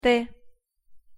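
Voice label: "т", Russian pronunciation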